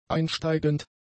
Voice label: "einsteigend", german; adjectives

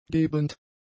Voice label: "gebend", German; adjectives

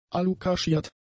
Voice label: "alukaschiert", German; adjectives

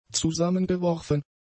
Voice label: "zusammengeworfen", German; adjectives

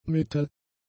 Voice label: "mittel", German; adjectives

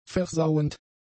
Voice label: "versauend", german; adjectives

